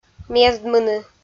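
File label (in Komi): "мездмыны", Komi, female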